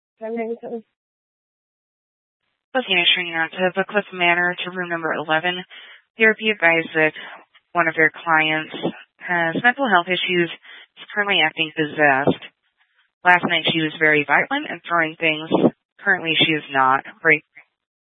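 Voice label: police dispatch radio; Police 1 Dispatch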